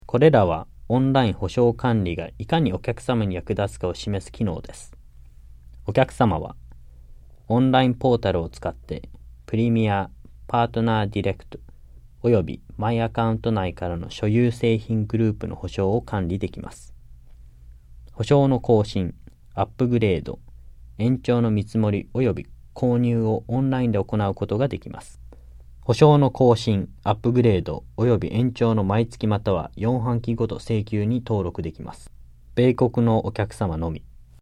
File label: JAPANESE; delltranslations